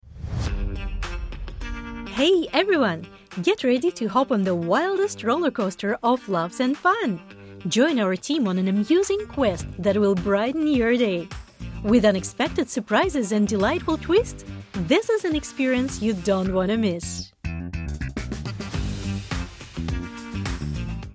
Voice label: cartoon Voice over